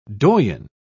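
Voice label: "doyen", English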